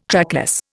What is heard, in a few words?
"trackless", Indian